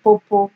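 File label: "popo", Nigerian Pidgin, female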